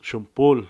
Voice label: "mosquito", Cucapá

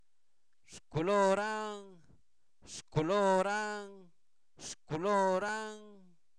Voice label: Zapoteco, santo domingo albarradas; INALI; posesivos; su color

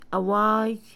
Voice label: "barba", Mixe, Mujer; INALI

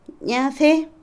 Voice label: sequía, Otomí, mujer; Vocabulary; INALI

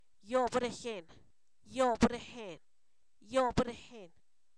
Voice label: disentería, Zapoteco, san miguel albarradas; enfermedades

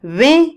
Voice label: ladrón, Otomí, mujer; INALI

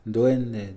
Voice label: "duende", Mixe, hombre; Religión

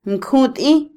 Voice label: multa, Otomí, mujer; INALI

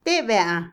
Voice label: cuál, Otomí, mujer; INALI

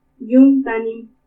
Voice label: "Iumu tanimu", ocho, Purépecha; Números

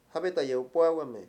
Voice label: "elección", Mayo; Mundo moderno

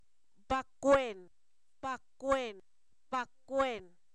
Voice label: dedo, Zapoteco, san miguel albarradas; cuerpo; INALI

